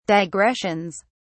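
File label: "digressions", en, British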